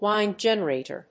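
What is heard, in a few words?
"wind generator", English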